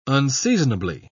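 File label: "unseasonably", British English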